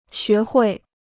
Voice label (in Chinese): "学会", Chinese